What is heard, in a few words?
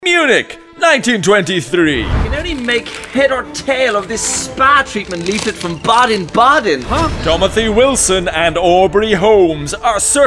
english british movie trailers